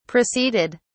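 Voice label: "proceeded", English, British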